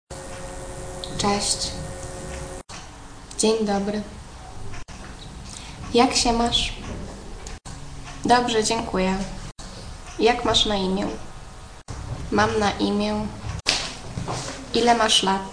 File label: Polish